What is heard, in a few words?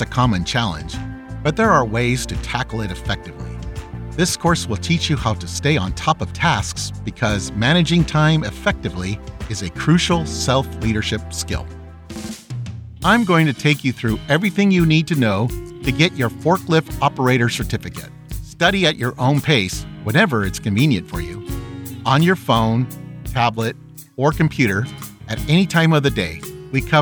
E LEARNING